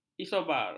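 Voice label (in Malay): "isobar", Malay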